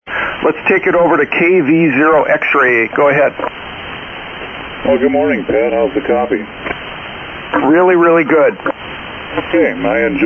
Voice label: Yaesu FT-101ZD Heil Mic & LK-500 Amp; Isanti, MN.